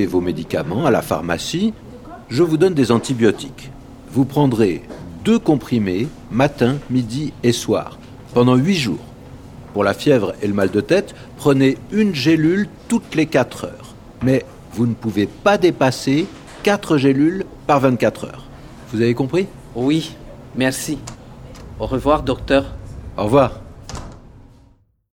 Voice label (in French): Dialogues apres la radio; RFIAsile